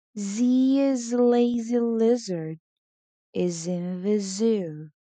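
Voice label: Tongue Twister z, pronunciation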